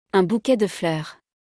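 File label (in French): "Un bouquet de fleurs", French; vocabulaire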